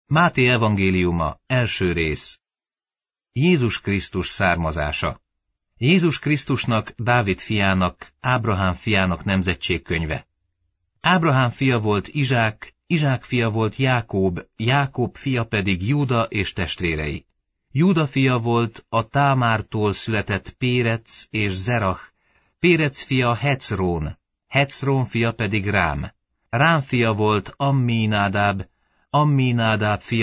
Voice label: hungarian bible